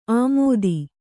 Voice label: "ಆಮೋದಿ", Kannada